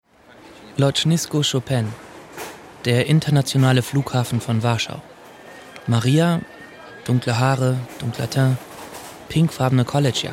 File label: male german commercial; ideasonora